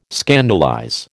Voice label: "scandalize", American